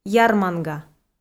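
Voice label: "ярманга", Komi, female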